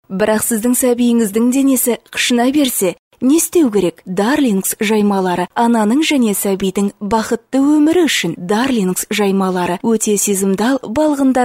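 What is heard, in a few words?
Kazakh, female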